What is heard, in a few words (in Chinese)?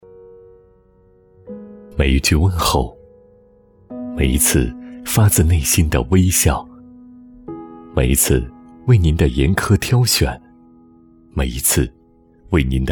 男; 衡阳金空间装饰